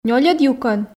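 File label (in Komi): нёльӧд юкӧн, Komi, female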